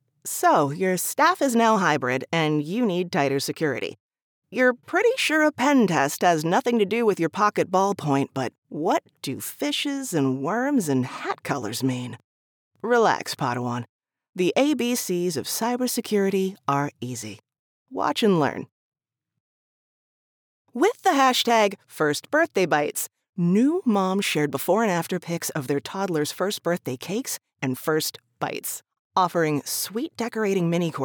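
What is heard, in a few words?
English American, female, voice over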